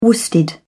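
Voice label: "worsted", British English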